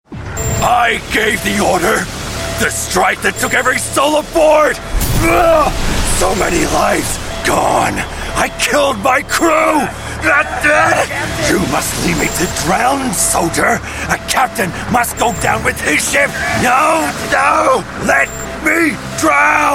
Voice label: AU English Gaming

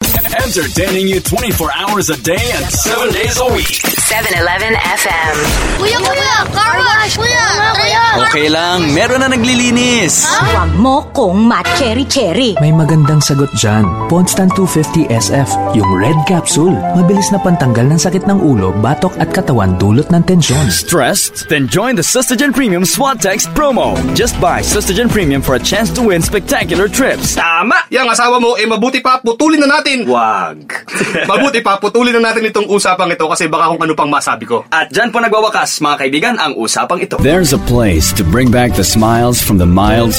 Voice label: Tagalog Male

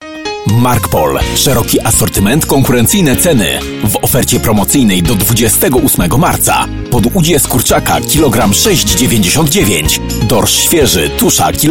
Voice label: Voice Sample Polish Male

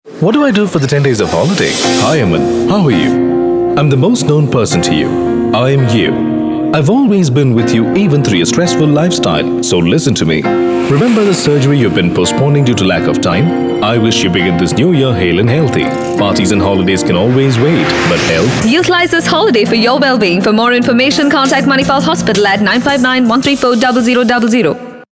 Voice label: Indian English Advertising

